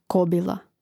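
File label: "kobila", Croatian; Mrežnik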